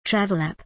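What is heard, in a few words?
"travel app", English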